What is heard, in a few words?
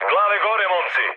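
Serbian near waypoint mood med; speech; War Thunder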